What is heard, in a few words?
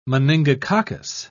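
"meningococcus", British English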